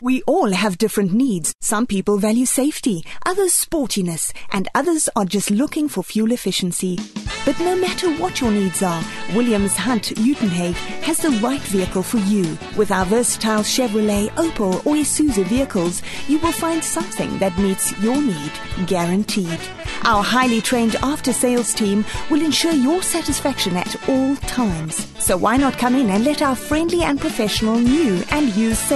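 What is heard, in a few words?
English South African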